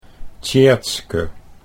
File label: "Tjeerdske" Dutch